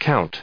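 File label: "count", US English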